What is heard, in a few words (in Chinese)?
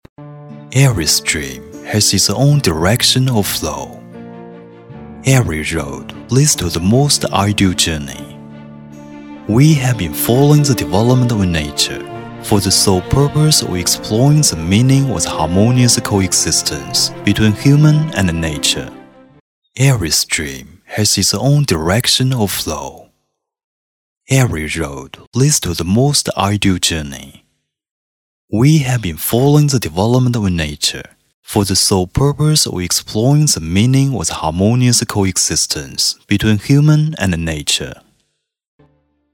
英文商业广告 磁性; 环保